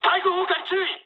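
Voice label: damaged aa mood high, speech japanese; War Thunder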